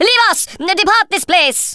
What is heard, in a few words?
run away, Speech Thespian; Worms Armageddon